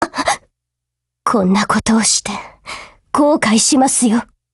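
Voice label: BREAK, Japanese; GF Alarm; M1918Mod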